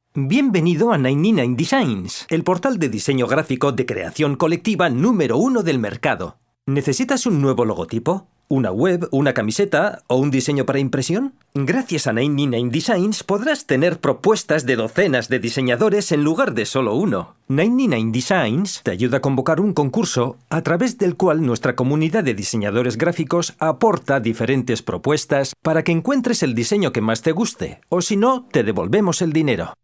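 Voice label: Spanish Spain, male, voice over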